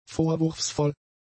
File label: "vorwurfsvoll", German; adjectives